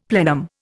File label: "plenum", Indian; Dictionary